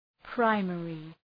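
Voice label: "primary", English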